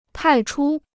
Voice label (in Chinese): "派出", Chinese